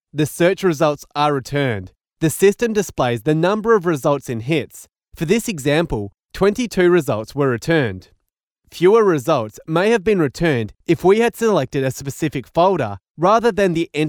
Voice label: english australian corporate

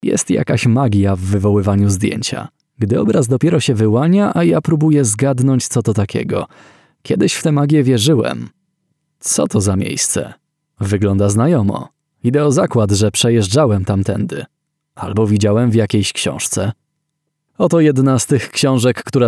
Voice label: polish video games

